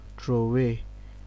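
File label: "troway", Nigerian Pidgin, male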